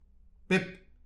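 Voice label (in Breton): "pebr", Breton; petit precis de prononciation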